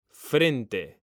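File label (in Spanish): "frente", Spanish; mekanta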